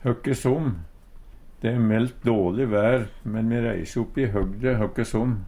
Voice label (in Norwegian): "høkesom", Numedalsmål; likevel, uansett; De e melt dåLe vær, me reise oppi høgde høkkesom.